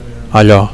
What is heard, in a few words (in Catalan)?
"allo", Catalan; that